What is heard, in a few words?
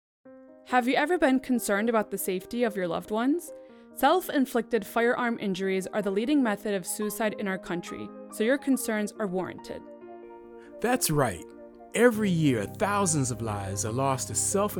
Radio Ad; ERPO